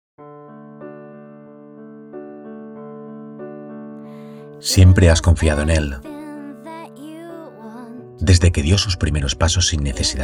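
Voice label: announcements, Español, Hombre; Anuncios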